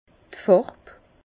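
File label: "farb", Alsatian, Bas Rhin, couleur; vin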